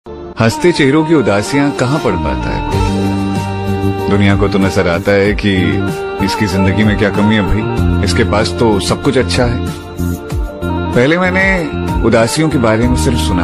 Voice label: Shayari Ringtone